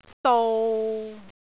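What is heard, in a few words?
"dou6", Cantonese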